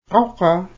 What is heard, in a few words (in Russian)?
"неподвижно", nanai